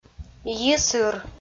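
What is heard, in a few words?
"йисыр", Komi, female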